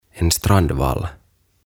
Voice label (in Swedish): "En Strandvall", "Rantatörmä"